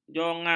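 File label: "jongang", Malay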